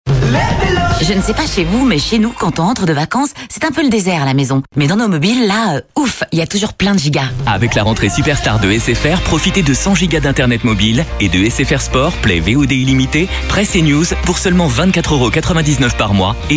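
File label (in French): jeune informatif, Comédien voix off, publicité; SFR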